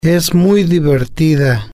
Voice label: "es Muy Divertida", spanish

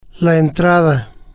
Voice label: "la Entrada", spanish

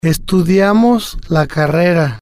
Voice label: "estudiamos La Carrera", spanish

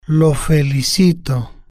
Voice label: "lo Felicito", spanish

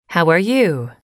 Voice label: how are you, English

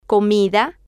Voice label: "comida", Spanish

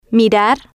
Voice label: "mirar", Spanish

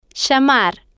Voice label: "llamar", Spanish

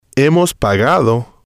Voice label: "hemos pagado", spanish

